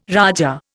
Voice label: "raja", Indian

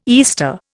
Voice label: "Easter", Indian

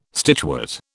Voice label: "stitchwort", British

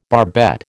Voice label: "barbette", American

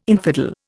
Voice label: "infidel", Indian

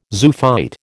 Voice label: "zoophyte", American

